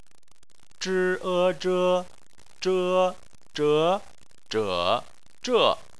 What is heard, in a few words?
"zhe", Chinese